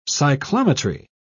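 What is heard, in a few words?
"cyclometry", British English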